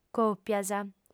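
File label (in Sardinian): "còpias", female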